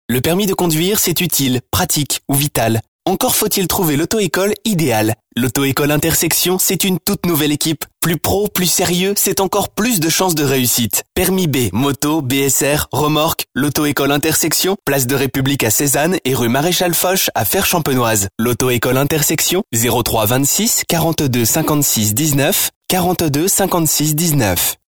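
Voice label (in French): French, male, commercial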